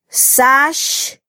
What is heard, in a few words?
"sax", mam